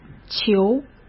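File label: "求", Mandarin Chinese; hsk; chinese-flashcards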